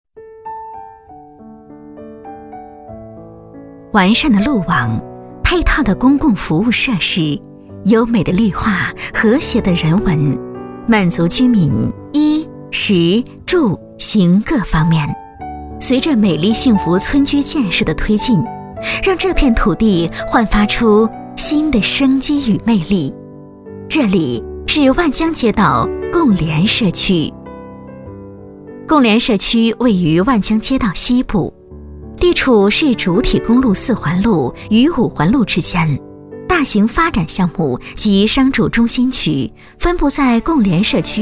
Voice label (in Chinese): 女 角色 宣传片; 美丽共联; 幸福水乡